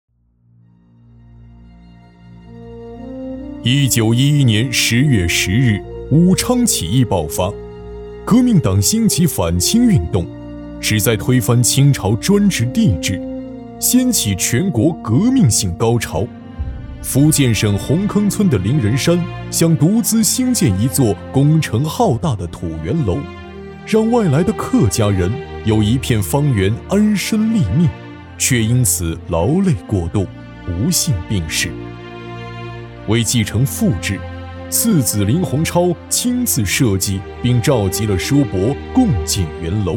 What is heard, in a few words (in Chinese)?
男 纪录片 人文历史; 武昌起义